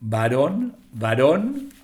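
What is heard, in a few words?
"baron varon", Spanish; minimalpaar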